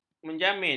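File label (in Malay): "menjamin", Malay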